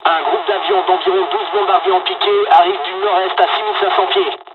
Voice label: dialog, dialogs french; War Thunder